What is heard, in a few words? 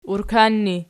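"urkan" ergative, Archi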